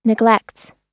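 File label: "neglects", American English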